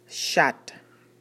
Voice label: "chat", Haitian Creole